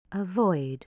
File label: "avoid", english